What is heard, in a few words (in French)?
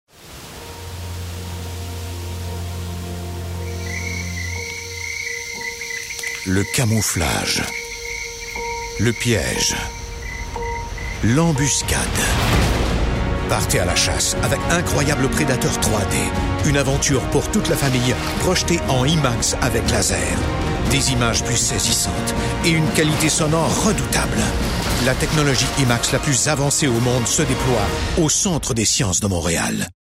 Bande Annonce; Predateur